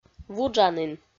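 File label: "вуджанін", Komi, female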